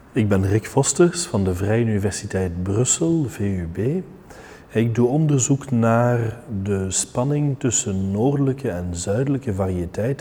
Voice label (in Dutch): abstract dutch